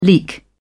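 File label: "leek", British English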